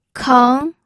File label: "keng2", pinyin